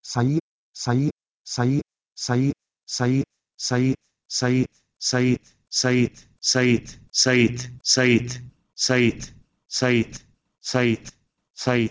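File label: Proto-Indo-European "sh2ei" to Ossetian "khid"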